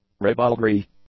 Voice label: "ribaldry", English; nouns

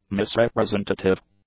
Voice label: "misrepresentative", English; adjectives

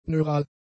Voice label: "neural", German; adjectives

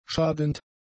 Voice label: "schadend", german; adjectives

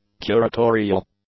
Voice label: "curatorial", English; adjectives

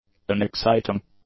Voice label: "unexciting", english; adjectives

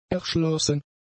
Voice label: "erschlossen", German; adjectives

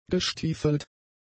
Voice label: "gestiefelt", German; adjectives

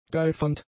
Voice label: "geifernd", German; adjectives

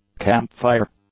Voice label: "campfire", English; nouns

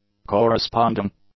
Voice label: "corresponding", English; adjectives

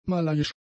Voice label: "malaiisch", German; adjectives

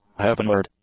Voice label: "heavenward", English; adjectives

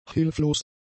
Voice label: "hilflos", German; adjectives

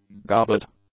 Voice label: "gobbet", English; nouns

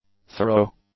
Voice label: "thorough", English; adjectives

